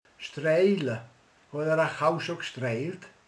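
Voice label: "fésülködik", Hungarian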